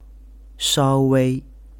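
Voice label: "shaowei", Chinese; ethnic minorities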